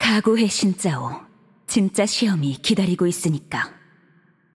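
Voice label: voice over, Korean; Game Outro; bloom minigame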